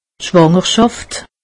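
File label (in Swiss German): "schwangerschaft", Alsatian; medecine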